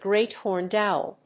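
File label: "Great Horned Owl", English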